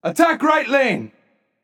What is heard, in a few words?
vox attack; smite; raijin; voicepack